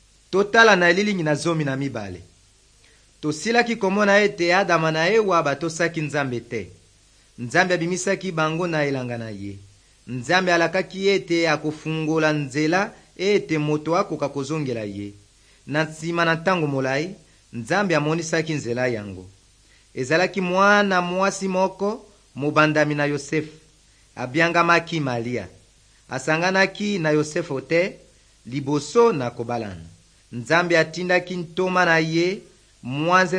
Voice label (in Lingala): good news lingala west, drama; bible; rdc